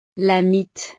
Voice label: "la mite", frances

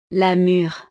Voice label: "la mure", frances; frutas

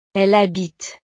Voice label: "elles habitent", frances

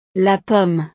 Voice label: "la pomme", frances; frutas

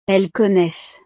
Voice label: "elles connaissent", frances

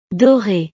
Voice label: "dore", frances

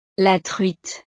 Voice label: "la truite", frances

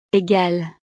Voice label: "egale", frances

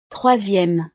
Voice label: "troisieme", frances

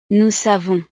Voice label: "nous savons", frances

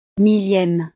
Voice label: "millieme", frances

